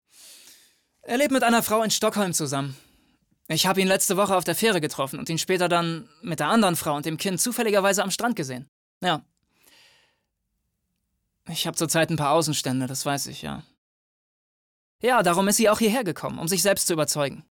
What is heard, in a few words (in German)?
synchron